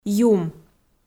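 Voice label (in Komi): "юм" Komi, female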